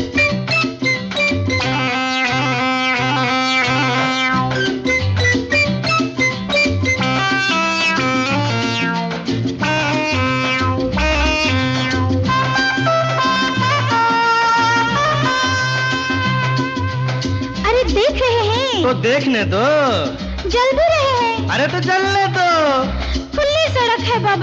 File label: Voice Over; Strepcils; khel khel mein 1975